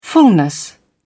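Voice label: "fullness", British English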